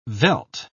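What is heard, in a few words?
"veldt", British English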